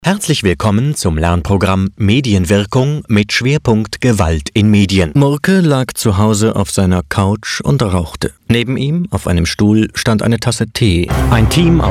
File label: German